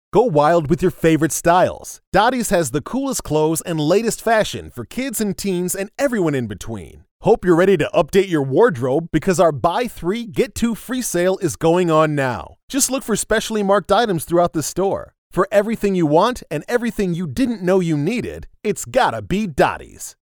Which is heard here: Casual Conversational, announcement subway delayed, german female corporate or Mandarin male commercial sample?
Casual Conversational